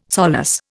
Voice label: "solace", Indian

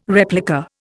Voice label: "replica", Indian

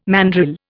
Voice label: "mandrill", Indian

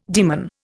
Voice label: "daemon", Indian

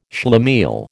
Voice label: "schlemiel", American

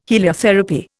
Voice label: "heliotherapy", Indian

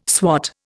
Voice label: "swot", Indian

